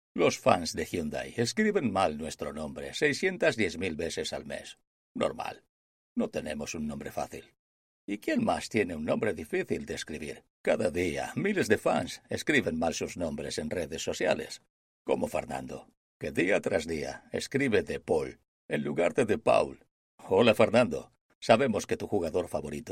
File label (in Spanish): espanol espana